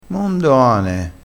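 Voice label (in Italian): "cane", dialettale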